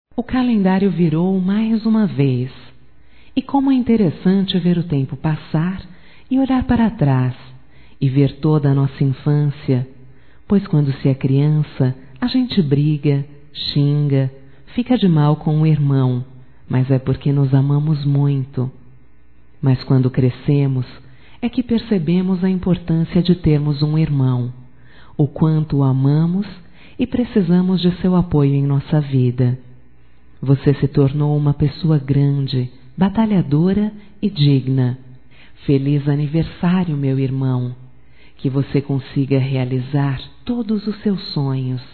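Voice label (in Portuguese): female, Telemensagens Aniversarios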